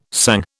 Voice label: "sang", British